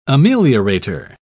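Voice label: "ameliorator", English, British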